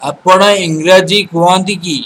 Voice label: do you speak english odia